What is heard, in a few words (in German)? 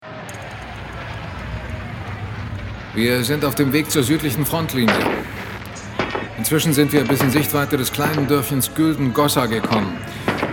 hoerspiel